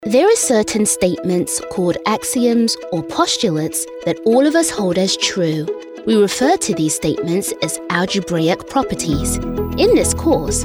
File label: 30s40s Female British eLearning